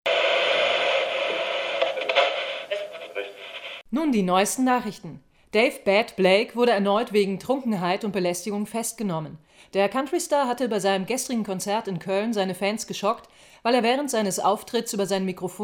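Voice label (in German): Radioansage, Sprecherin; Tonkonserve; TheONE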